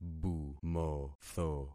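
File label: "bumotho", concatenated syllables; Affixing experiment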